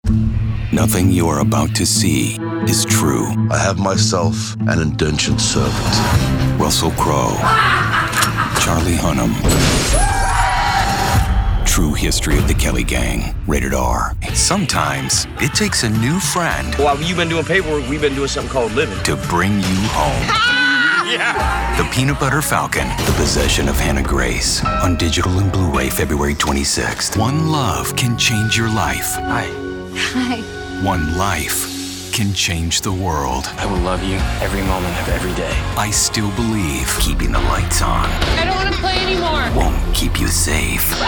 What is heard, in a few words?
Trailer